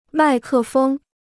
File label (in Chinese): "麦克风", Chinese